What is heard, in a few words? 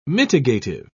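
"mitigative", British English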